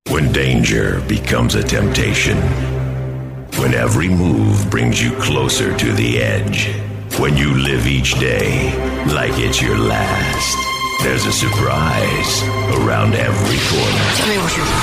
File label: deep american, movie mix